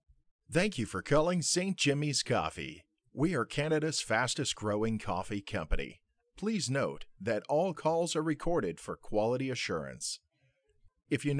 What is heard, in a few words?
ivr